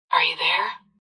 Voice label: Are You There, Female; Talking Sound Effects

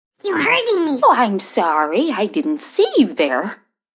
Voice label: Hurting Me Sorry, Female; Talking Sound Effects

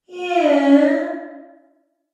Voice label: "Yeah" With Reverb Female Voice; recorded voice

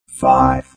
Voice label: Robot Voice "0"

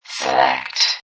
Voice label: Robot Voice "Select"

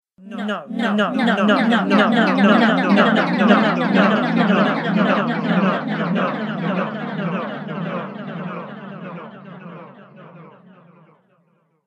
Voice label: Female Voice Says No With Delay; recorded voice

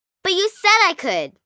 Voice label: Whine But You Said, 1 girl, 9 yrs; Whining Sound Effects; VoiceBox; Twistedtracks

